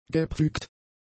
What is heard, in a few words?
"gepflügt", German; adjectives